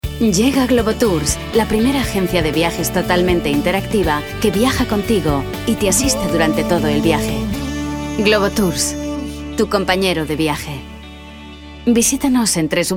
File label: es commercial, female spansk europa